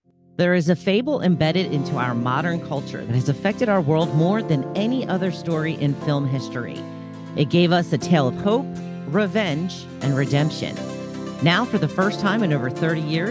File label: Female